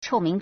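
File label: "chòumíng", Chinese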